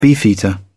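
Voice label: "beefeater", English, British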